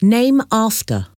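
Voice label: "name after", English word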